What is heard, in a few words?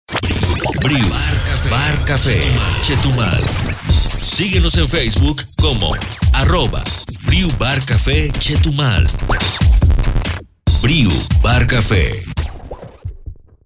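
Latin American Spanish, male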